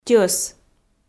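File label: "тьӧс", Komi, female; audio dict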